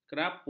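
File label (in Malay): "kerapu", Malay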